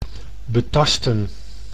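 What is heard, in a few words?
"betasten", Dutch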